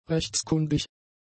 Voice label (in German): "rechtskundig", German; adjectives